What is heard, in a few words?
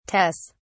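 "tes", English, British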